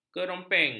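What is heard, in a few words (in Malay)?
"keropeng", Malay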